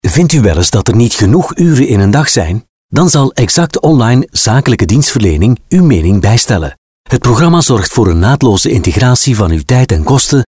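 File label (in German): Flemish werbung, voicesamples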